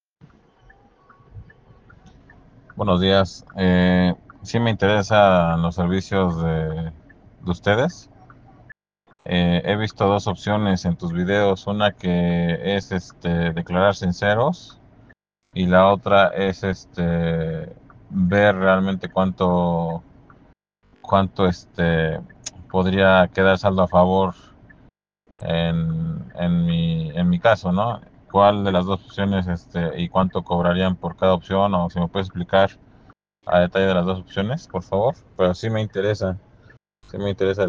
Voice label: whatsapp ptt